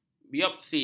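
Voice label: "biopsi", Malay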